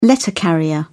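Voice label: "letter carrier", British English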